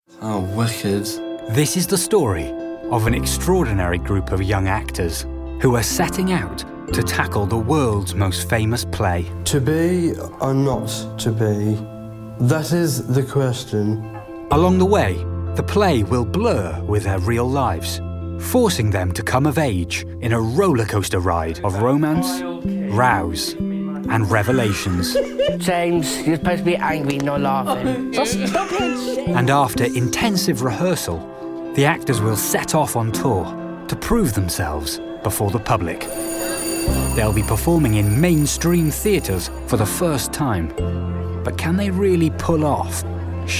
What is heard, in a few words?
Narration